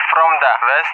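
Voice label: "from the west", English, Russia; DCS; Allied Flight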